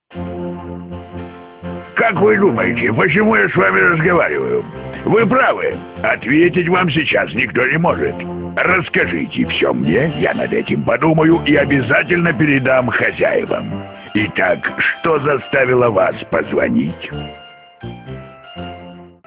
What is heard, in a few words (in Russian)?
Avtootvet